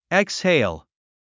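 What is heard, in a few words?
"exhale", English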